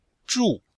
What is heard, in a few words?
"zhu4", Chinese